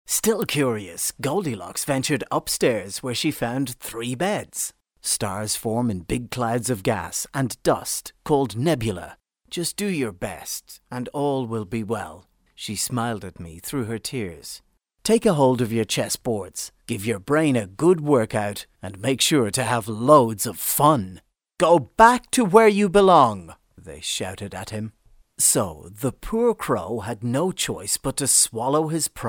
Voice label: Narration

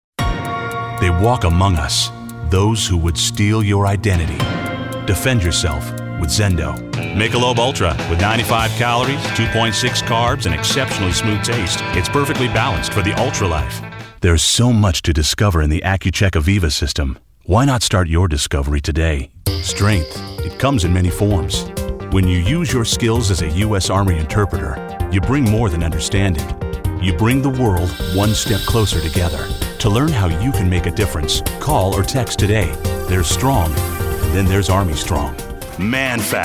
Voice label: Commercial; male voice actor

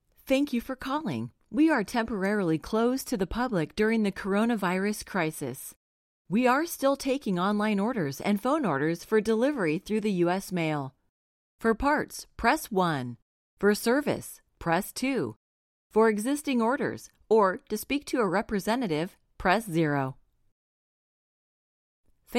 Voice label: On Hold; voicemail voice over; Internet Jock

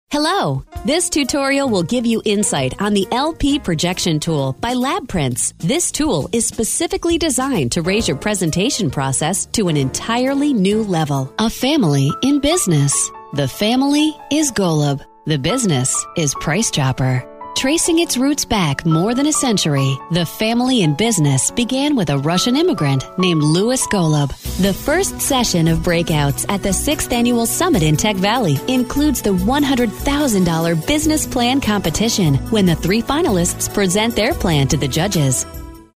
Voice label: Narration; female voice over narrators

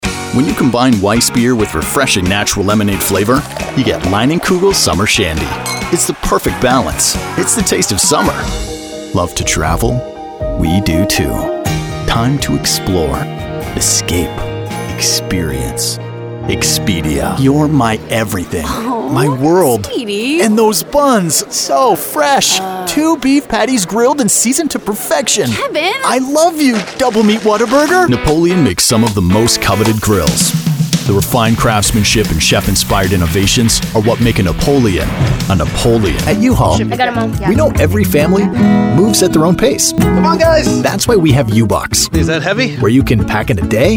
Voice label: Commercial; male voice actor

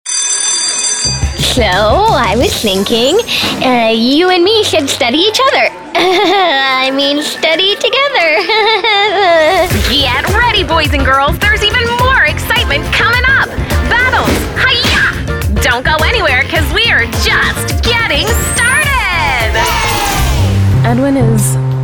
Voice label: Character Voice; character voiceover

